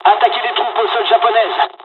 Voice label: takeoff, dialogs french; War Thunder

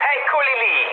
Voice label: enemy detected mood low, speech it; War Thunder

